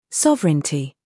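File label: "humor", British; sovereignty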